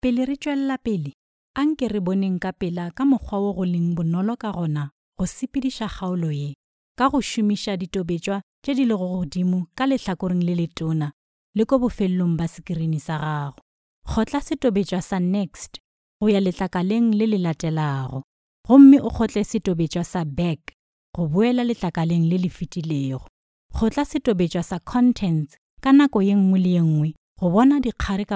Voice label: female sepedi voice over narrative, sotho northern